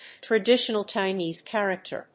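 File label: "traditional Chinese character", English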